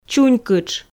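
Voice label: "чунькытш", Komi, female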